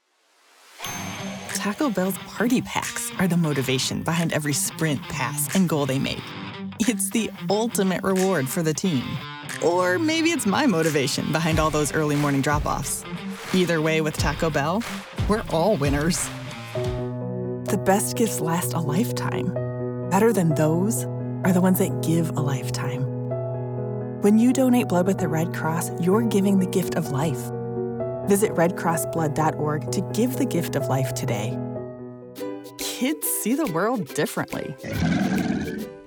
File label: Commercial